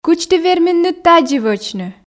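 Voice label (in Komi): кыдз ті верминныд тадзи вӧчны, Komi, female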